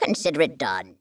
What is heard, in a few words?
Female; baldurs1